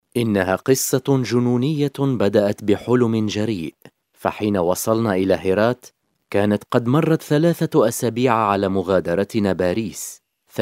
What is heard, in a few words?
locutor arabe arabic male voiceover; Arabic male voice over. Lebanon